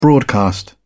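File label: "broadcast", British English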